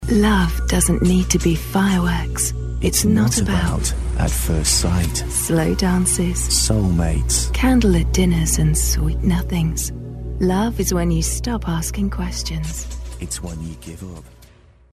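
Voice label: English British, female, commercial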